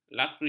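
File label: "lakri", Malay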